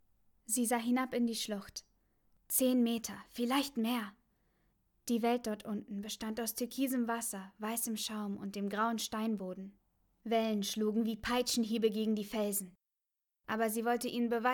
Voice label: Leseprobe

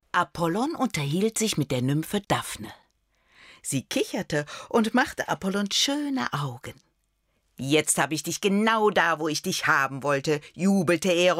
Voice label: Kinderhoerbuch

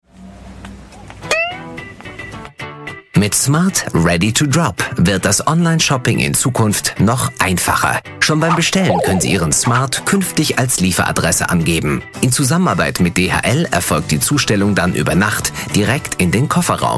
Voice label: Tutorial dynamisch markant; smart

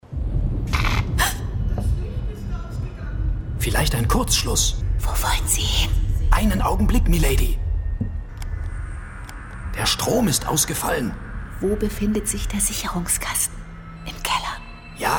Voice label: Hörspiel; Lady Bedfort

